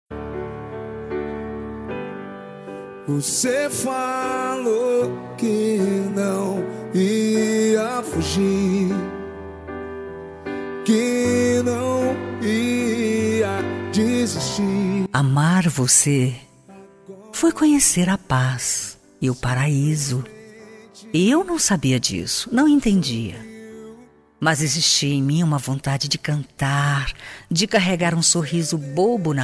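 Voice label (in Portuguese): RECONCILIACAO female, Telemensagem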